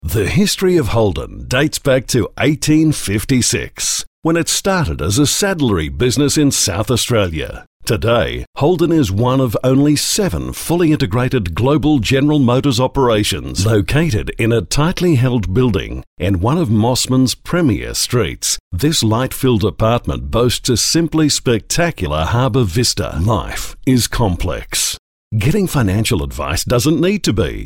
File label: Corporate